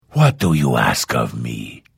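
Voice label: Hello, Vo; Morrowind GOTY GOG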